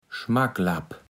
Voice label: "Schmacklapp", platt

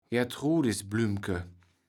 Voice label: "Gertrudisblümke", Platt

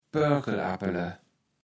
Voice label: "Poarkeleappele", Platt

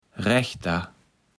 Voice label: "Rechter", Platt

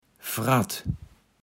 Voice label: "Fratt", Platt

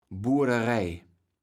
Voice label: "Burderej", kaevels platt

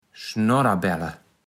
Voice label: "Schnodderbälle", kaevels platt

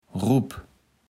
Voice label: "Rupp", kaevels platt

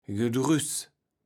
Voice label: "Gedrüß", Platt

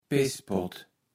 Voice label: "Pesspot", kaevels platt

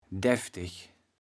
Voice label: "defftig", kaevels platt

